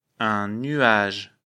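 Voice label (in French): "nuage", French; afrikalan; lecture fr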